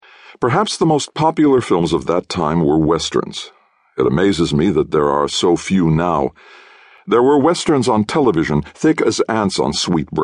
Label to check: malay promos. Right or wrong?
Wrong